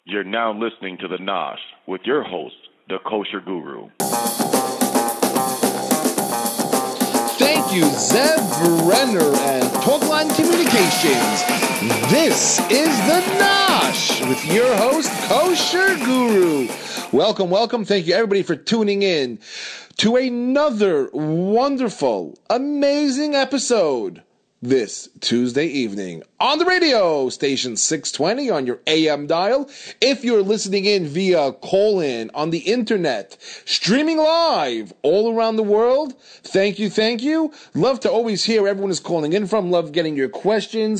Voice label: Voice over